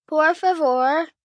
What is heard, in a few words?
Spanish Please, Etiquette, 1 boy, 10 yrs., Spanish; Talking; Voice Box